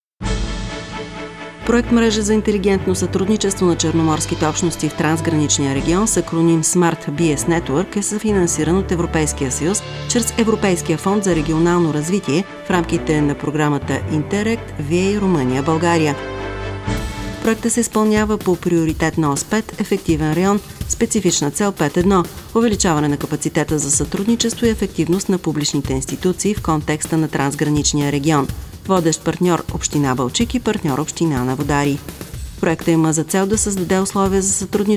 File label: Radio Spot